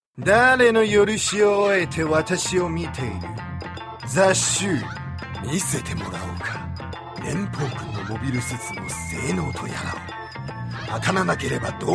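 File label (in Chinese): male, riyu (Japanese)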